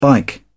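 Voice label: "bike", British English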